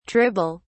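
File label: "tribble", British English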